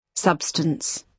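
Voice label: "substance", British English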